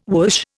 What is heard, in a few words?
"whoosh", Indian